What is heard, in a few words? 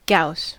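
"gauss", American English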